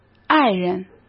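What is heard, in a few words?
"爱人", Mandarin Chinese; hsk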